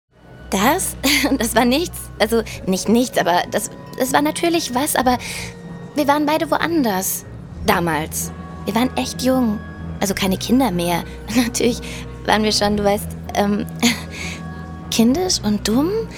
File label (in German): Sprecherin Figur, Hörspiel; Demo; Mag Dich Von Frueher